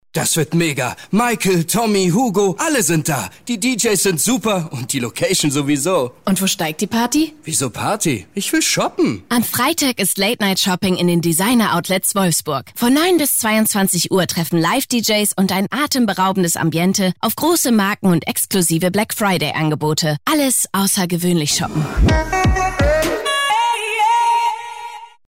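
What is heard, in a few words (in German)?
Werbung Szene; DOW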